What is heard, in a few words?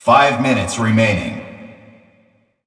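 pa voice five min left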